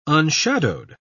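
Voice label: "unshadowed", British English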